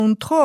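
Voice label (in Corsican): "ùn trova", Moita Verde (nord)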